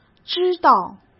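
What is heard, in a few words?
"知道", Mandarin Chinese; chinese flashcards; hsk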